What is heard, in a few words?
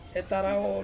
"tarawo", to listen, Ansus